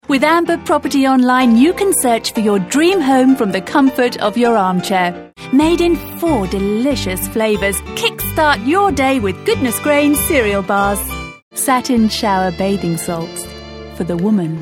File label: UK